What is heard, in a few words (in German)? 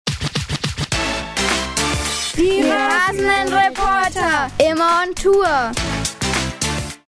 Jingle Kinderradio; Kolmsdorf